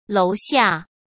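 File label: "楼下", Mandarin Chinese; chinese flashcards; hsk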